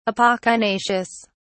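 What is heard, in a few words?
"apocynaceous", British English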